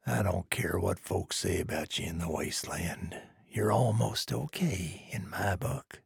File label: trader male neutral quest complete